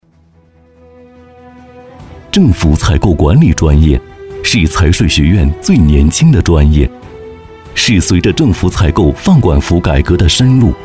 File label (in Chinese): 男, 学校宣传片; 财税学院